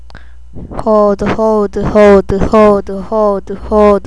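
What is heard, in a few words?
"hold", spoken word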